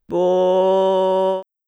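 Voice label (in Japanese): "ぼ", Japanese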